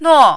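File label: "nuo", Mandarin, fourth tone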